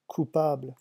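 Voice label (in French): "coupable", French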